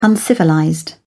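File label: "uncivilized", British English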